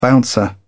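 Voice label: "bouncer", British English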